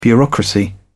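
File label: "bureaucracy", British English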